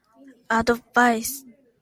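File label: "advice", Japanese, female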